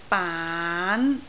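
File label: "baan2", Cantonese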